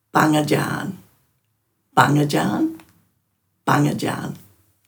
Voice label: "bangadjan", Dhurga

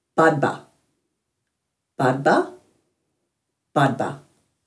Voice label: "badba-", Dhurga

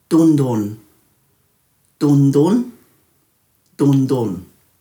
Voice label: "dundhun", Dhurga

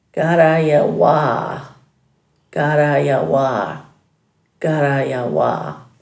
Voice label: "garraywaa", Dhurga; milky way

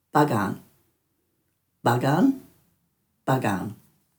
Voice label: "bagan", Dhurga

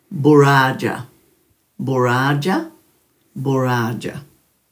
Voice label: "buraadja", Dhurga

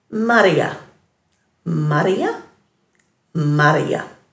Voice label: "marriya", Dhurga

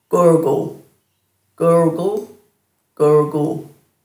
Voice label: "gurgul", Dhurga

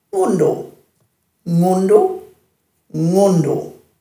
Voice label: "ngundhu-", Dhurga

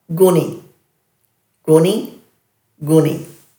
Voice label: "guni", Dhurga

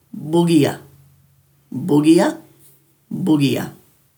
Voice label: "bugiya", Dhurga

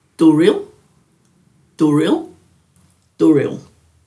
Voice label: "dhuril", Dhurga

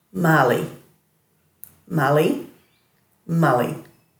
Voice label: "mali", Dhurga

